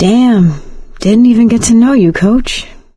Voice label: survivor mourn, survivor voice; Left 4 Dead 2; producer